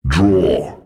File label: Draw, PvP Announcer